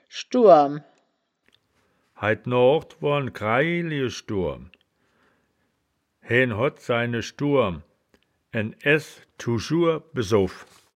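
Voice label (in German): "schduurm", Wittlicher Wörterbuch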